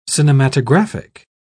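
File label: "cinematographic", British English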